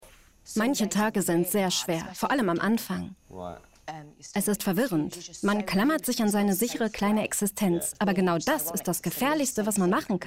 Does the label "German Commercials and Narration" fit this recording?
No